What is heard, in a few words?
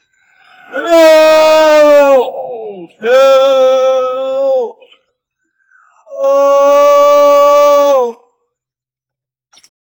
homeless man cry for help; distress